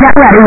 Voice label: get ready 8 bit average; space harrier